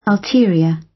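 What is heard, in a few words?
"ulterior", British; Crime and punishment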